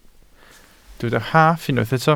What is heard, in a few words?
"rhaff", Welsh